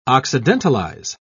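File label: "occidentalize", British English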